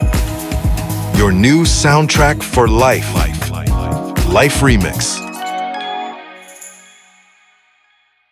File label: sweeper